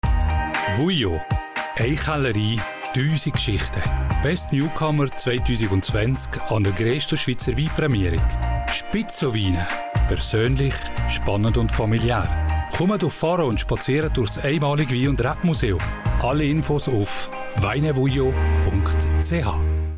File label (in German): Werbespot; RRO 2020